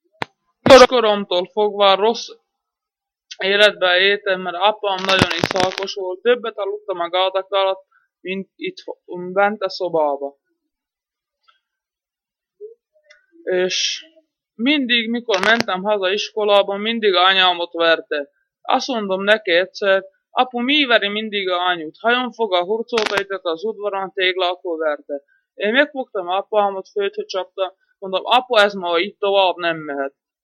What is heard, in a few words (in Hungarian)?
nyelvjaras gyujtesek